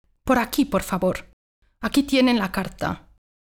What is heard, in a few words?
conversation